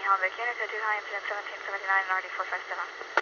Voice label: Police Radio

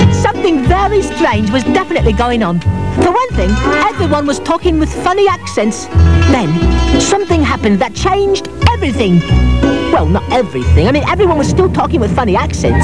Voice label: accents

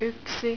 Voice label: "yksi", Finnish

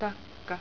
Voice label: "takka", Finnish; 'fireplace'

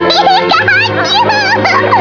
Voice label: pikachu laugh; Pikachu's laughing now; Meowth

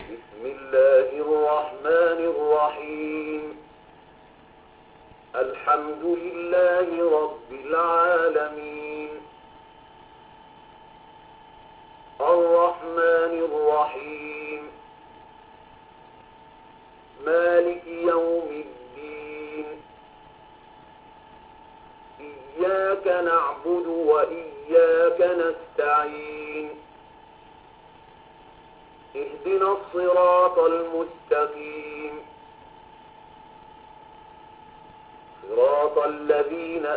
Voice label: fatihah, recitation of Al-Fatihah

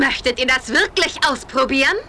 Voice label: whisper

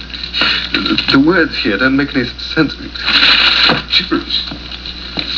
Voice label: gibberis

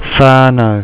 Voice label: "whaanau", maori

